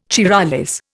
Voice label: "Charollais", Indian

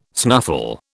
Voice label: "snuffle", British

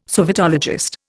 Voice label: "sovietologist", Indian

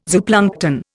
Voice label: "zooplankton", Indian

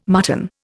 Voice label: "mutton", Indian

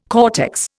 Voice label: "cortex", Indian

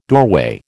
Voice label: "doorway", American

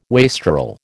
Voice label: "wastrel", American

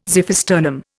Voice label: "xiphisternum", Indian

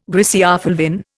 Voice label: "griseofulvin", Indian

